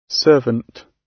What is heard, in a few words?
"servant", gb